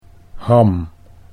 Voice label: "ham", Dutch